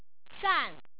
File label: "shan4", Hong Kong tone